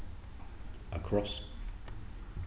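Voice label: "across", British English, male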